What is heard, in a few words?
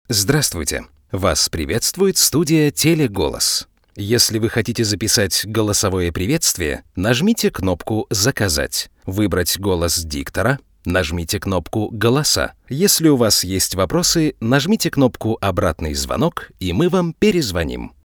Bariton